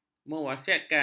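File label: "mewasiatkan", Malay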